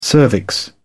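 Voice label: "cervix", British English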